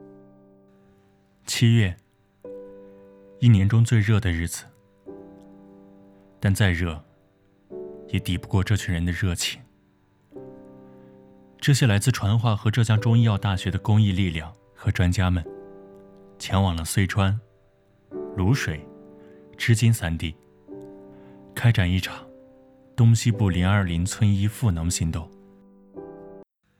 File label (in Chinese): 走心解说